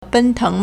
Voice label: "ben1teng2", Chinese